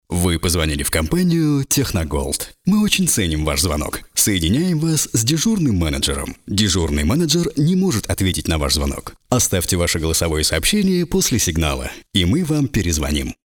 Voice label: voice ivr